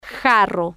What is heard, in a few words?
"jarro", Spanish